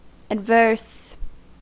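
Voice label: "adverse", American English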